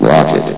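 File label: "rocket", male synthesized voice; vox; source engine; hl1; video games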